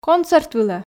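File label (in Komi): концерт вылӧ, Komi, female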